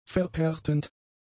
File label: "verhärtend", German; adjectives